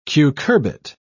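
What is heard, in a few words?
"cucurbit", British English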